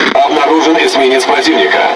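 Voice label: Russian Speech Detection Enemy Destroyer; World Of Warships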